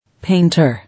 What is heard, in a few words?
"Painter", English